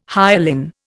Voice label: "hyalin", Indian